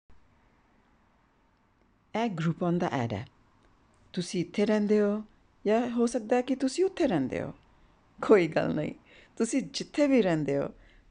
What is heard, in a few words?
Punjabi friendly